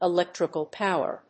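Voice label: "Electrical Power", English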